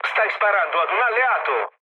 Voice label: player friendly fire, mood low, speech it; War Thunder

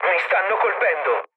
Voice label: aircraft damaged, mood low, speech it

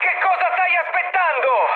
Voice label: attack position, mood high, speech it; War Thunder

